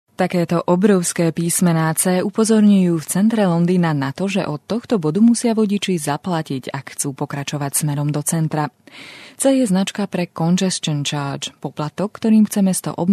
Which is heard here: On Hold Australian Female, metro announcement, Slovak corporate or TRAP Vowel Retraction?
Slovak corporate